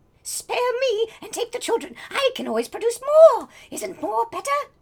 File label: Voices Female Beggings; a dump in the dark